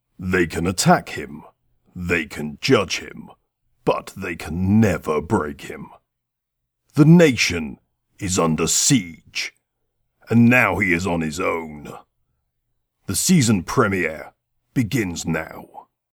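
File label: uk movie trailer, British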